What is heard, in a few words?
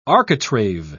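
"architrave", English, British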